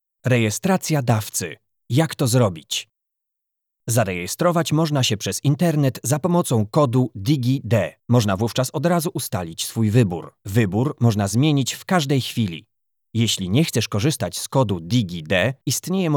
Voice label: POL eLearning